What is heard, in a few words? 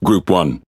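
Speech Pilots Fighter, British English; World Of Warships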